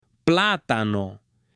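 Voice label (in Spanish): "platano", hombre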